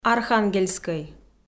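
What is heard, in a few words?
"архангельскӧй", Komi, female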